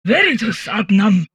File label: humanoid portrait female; Stellaris; humanoids